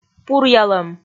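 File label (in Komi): "пуръялӧм", Komi, female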